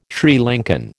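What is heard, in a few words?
"Sri Lankan", American English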